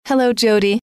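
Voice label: hello, female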